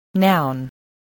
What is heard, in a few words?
"noun", voice, spelling